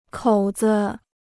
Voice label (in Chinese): "口子", Chinese